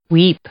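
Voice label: "weep", English, female; verb; i-ɪ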